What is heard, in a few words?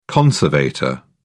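"conservator", English, British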